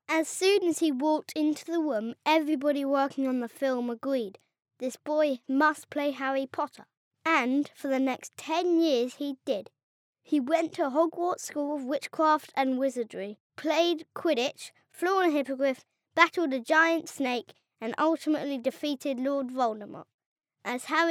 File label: boy british voice over narrative; english british